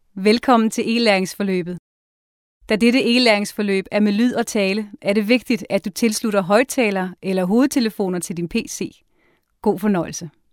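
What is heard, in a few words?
danish female ivr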